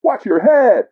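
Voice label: watch your head, basketball announcer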